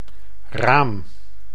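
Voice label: "raam", Dutch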